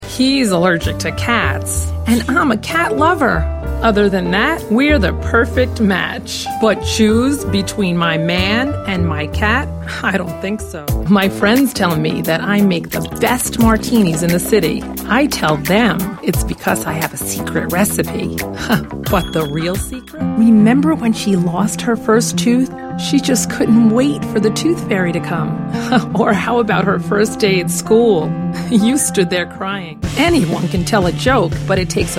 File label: Commercial